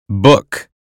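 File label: "book", American phonetics; 英语音标发音（剑桥版）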